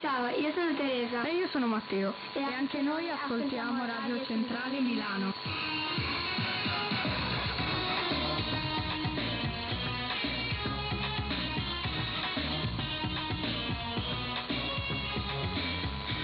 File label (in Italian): 1575 kHz italiano; Radio Centrale Milano; Perseus; Schwarzsee